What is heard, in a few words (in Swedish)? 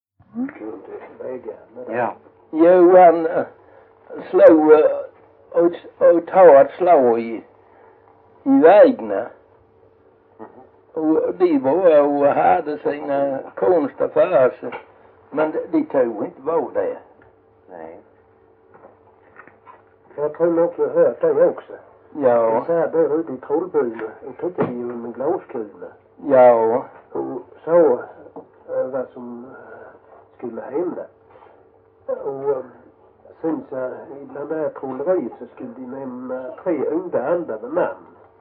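Jämshög Blekinge, dialekter svenska sagor; Folkminnen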